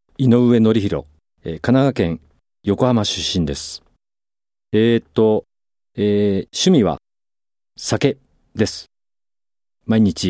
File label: freetalk, male voice